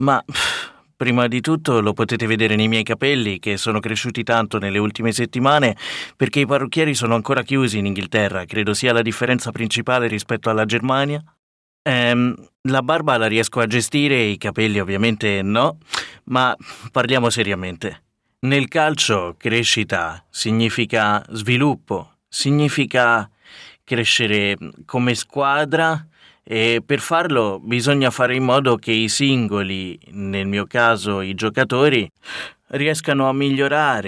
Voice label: Italian male, natural